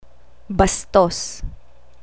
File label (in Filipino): "bastos", Tagalog